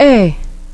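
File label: vowel ei, English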